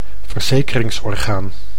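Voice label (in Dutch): "verzekeringsorgaan", Dutch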